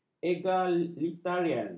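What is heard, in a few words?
"egalitarian", Malay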